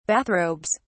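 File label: "bathrobes", British English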